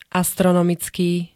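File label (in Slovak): "astronomicky", Slovak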